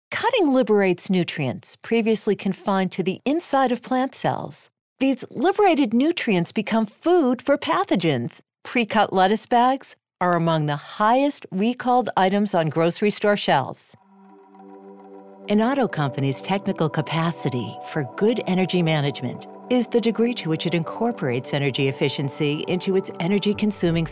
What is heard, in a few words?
eLearning